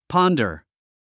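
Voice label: "ponder", English word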